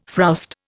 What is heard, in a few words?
"frowst", Indian